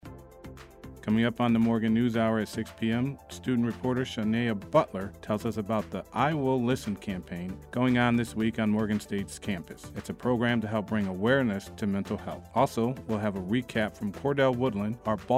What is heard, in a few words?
radio promo; morgan news hour; weaa